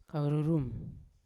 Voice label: "karurum", Yawa; seven

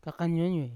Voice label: "kakaniuaniue", Yawa; dirty

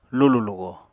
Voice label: "lulù-lugo", Apatani

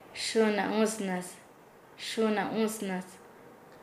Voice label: "estar callado", Nasa Yuwe; paez

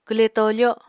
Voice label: "kwle tofo", Apatani

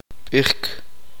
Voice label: uspanteko; decir

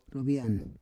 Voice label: "rovian", Roon

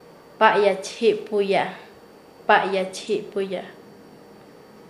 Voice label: Nasa Yuwe; tener cuidado; paez

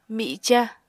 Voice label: "mica", Apatani

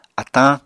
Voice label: "atañ", Apatani

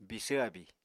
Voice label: "bisvr abíi", Apatani

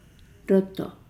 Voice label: "inside lodo", Nukuoro

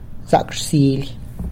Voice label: "Zac rsily!", Good morning!

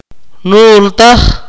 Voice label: "smooth", Akateko

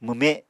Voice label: "mwme", Apatani

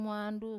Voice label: "muandu", two

